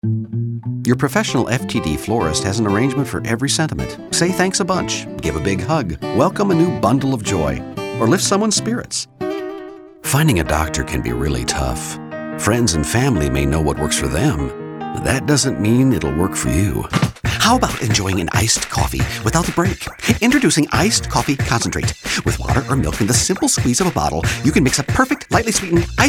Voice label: VO Demo